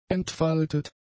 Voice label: "entfaltet", German; adjectives

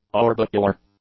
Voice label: "orbicular", English; adjectives

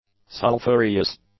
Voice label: "sulfureous", English; adjectives

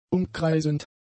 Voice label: "umkreisend", German; adjectives

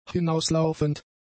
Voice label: "hinauslaufend", German; adjectives

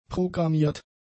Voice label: "programmiert", German; adjectives

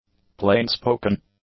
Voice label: "plainspoken", english; adjectives

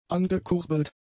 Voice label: "angekurbelt", German; adjectives

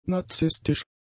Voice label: "nazistisch", German; adjectives

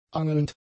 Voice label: "angelnd", German; adjectives

